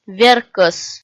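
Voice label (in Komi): "веркӧс", Komi, female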